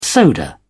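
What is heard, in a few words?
"soda", British English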